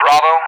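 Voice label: "Bravo" English, speech; Alphabet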